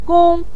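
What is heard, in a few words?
"gong", Mandarin Chinese, neutral tone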